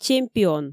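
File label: "чемпион" Komi, female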